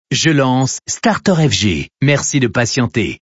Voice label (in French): lancement radio; starterfg